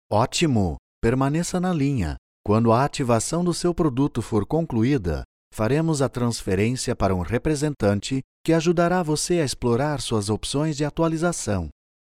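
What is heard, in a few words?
Phone prompts IVR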